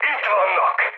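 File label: Hungarian enemy detected mood high, speech; War Thunder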